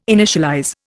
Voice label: "initialize", Indian